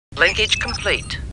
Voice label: Star Trek Computer Voice; StarTrekLCAR